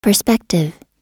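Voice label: "perspective", English; oxford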